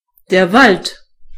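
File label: forest, German voices words; gcompris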